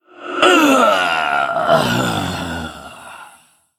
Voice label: Male Ethereal Death

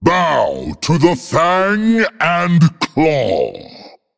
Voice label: Male Lynx Play

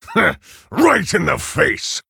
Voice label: Male Orc Ogre Attack

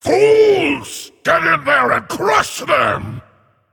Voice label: Male PitLord Play

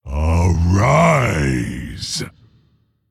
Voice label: Male Mogu Attack

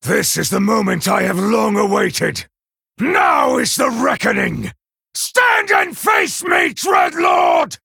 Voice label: Male Night Elf; Hero; Kurtrus; Cariel Pre Mission6

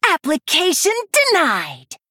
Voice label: Female Blood Elf Attack

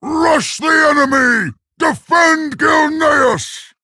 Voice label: Male Worgen

